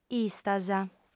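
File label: "istas", female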